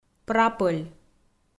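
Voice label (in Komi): "прапӧль" Komi, female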